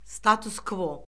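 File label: "status-kvo", Azerbaijani; Orfoqrafiya Lüğəti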